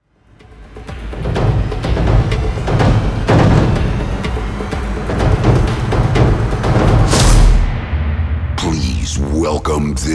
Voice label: sweeper please welcome